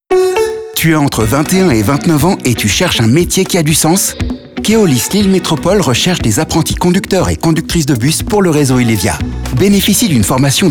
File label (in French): radio recrutement; Keolis